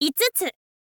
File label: "itsutsu", info girl voice